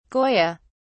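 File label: "goya", English, British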